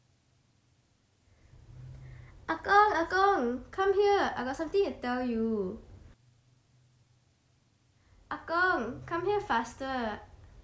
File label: Kids calling out to user